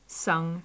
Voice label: "sung", English